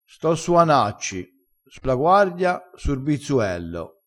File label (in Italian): "sto", vocabolario dialettale crespinese